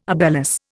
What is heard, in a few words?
"obelus", Indian